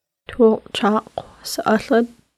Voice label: seafood, Lushootseed

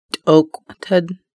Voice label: ruler, Lushootseed

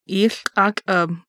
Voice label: mostly cloudy, Lushootseed

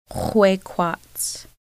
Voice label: "yellow", Lushootseed

